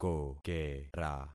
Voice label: "kokera", concat syllables; Affixing experiment

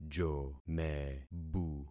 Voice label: "jomebu", concat syllables; Affixing experiment

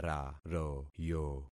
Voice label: "raroyo", concat syllables; Affixing experiment

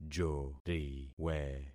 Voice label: "joriwe", concat syllables; Affixing experiment

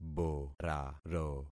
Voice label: "boraro", concat syllables; Affixing experiment

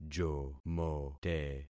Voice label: "jomote", concat syllables; Affixing experiment

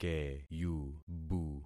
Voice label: "keyubu", concat syllables; Affixing experiment

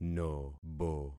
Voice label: "nobo", concat syllables; Affixing experiment

